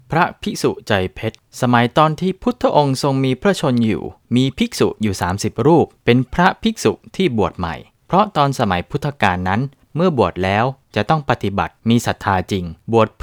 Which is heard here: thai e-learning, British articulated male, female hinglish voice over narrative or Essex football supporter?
thai e-learning